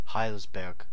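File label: "Heilsberg", German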